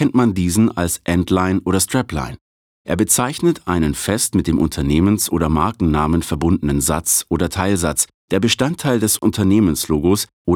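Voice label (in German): Kommentar, Claim, Neutral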